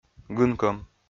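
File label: "гынкӧм", Komi-Zyrian, male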